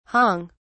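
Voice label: "hong", British English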